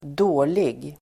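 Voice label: "dålig", Swedish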